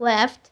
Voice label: left, kid

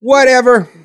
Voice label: "whatever", expletives, g-rated swears

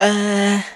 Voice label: "uh", silly

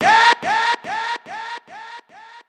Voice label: "yeah" echoes

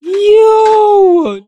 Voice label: "yo", voice

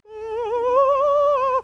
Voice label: "oh" worried

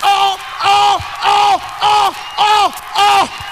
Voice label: "oh oh oh oh oh oh oh oh oh", Voice; ooh ahh

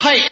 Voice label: "hey", Voice

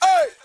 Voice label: "ay", voice

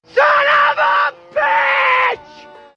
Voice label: son of a bitch male; Voice

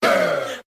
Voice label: "hey", group of men

